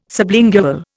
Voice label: "sublingual", Indian